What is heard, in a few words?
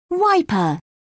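"wiper", British English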